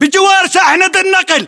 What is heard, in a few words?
landmark near truck pickup, english battlechatter